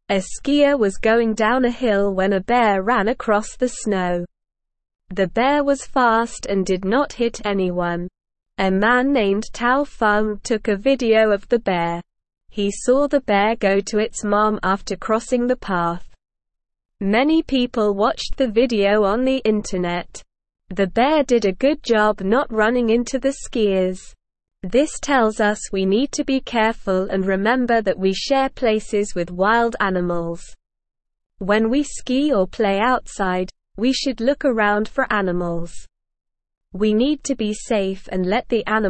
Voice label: Beginner SLOW Reading, English; English Newsroom